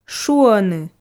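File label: "шуоны", Komi, female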